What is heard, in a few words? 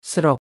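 "ស្រុក", Khmer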